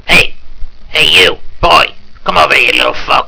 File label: old man; tomb